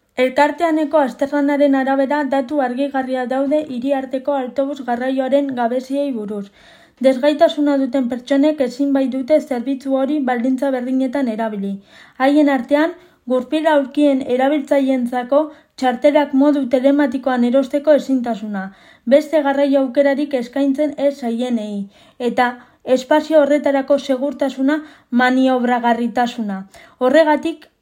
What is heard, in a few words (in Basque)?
euskera; accesibilidad del transporte público de autobús en euskadi